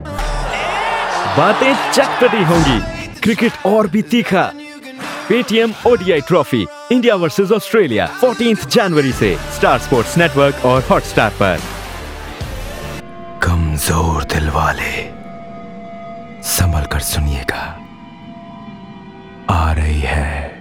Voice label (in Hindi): Hindi Promo